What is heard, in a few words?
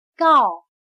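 "告" Chinese, gào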